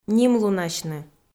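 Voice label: "нимлунасьны", Komi-Zyrian, female; kpv audio dict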